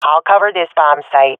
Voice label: guarding bombsite, swat fem; vo; Counter Strike Model Pack; CS:GO

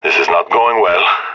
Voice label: scared; Counter Strike; CS:GO; leet epic

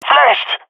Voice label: blinded, jungle male; agents; jungle male epic

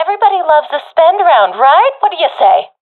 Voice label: request spend, professional fem; vo; Counter Strike Model Pack; CS:GO

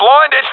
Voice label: blinded, professional epic; vo; Counter Strike Model Pack; CS:GO

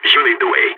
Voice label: following friend, balkan; vo

